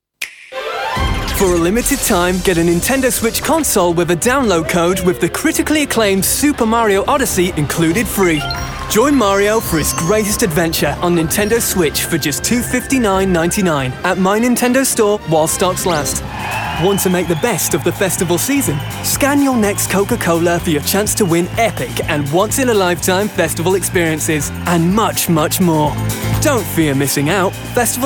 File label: commercial, UK